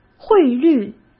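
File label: "汇率", Mandarin Chinese; hsk; chinese-flashcards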